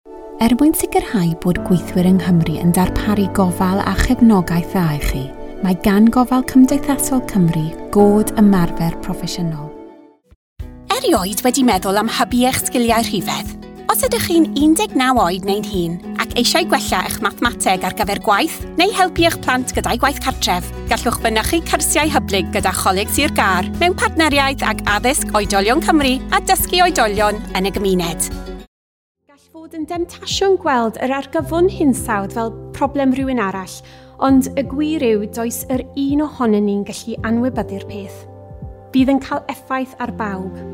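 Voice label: Welsh, General; GoLocalise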